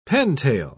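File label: "pentail", English, British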